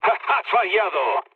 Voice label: bomb failure, mood low, speech; War Thunder